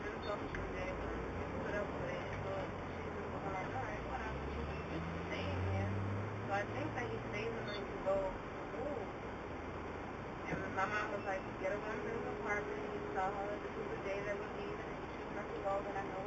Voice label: loud mobile talker

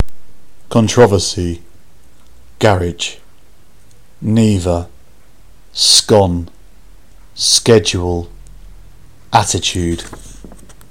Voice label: shoreham kent uk male

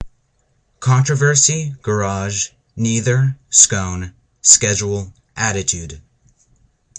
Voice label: hingham massachusetts male

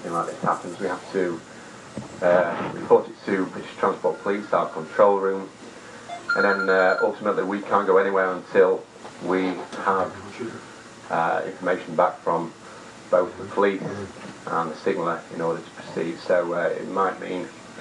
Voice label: train announcement after person hit by a train; attenborough

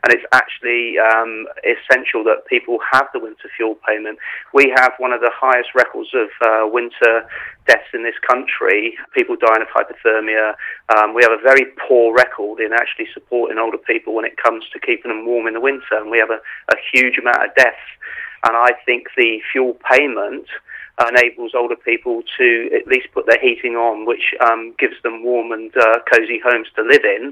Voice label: interview; age uk bournemouth

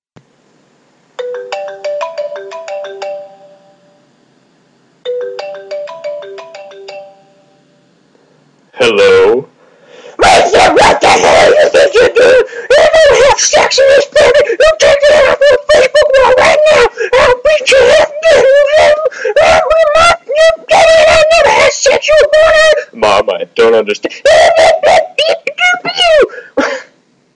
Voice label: sexy phone

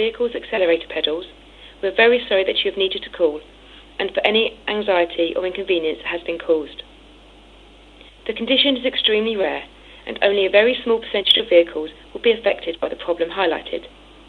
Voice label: toyota recall message helpline

